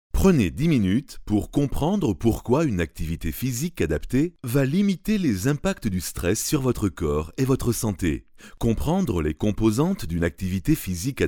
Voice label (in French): voix off homme fr